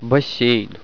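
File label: "swimming pool", Russian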